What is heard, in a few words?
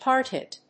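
"hard-hit", English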